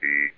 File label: E, votrax speech; vectrex